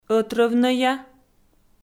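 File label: "ӧтрӧвнӧя" Komi, female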